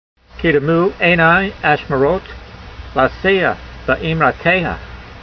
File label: voice, hebrew language; Psalm 119:148; keedemoo aynai ashmurot, lasee'ah be'eemrateyha